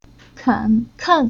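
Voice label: "Ken", Chinese syllable; Уроки фонетического блока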